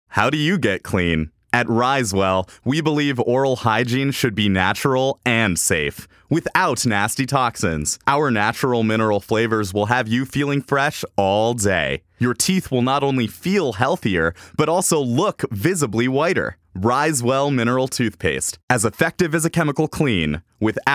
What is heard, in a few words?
voice over